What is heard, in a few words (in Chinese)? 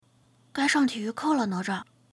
男童样音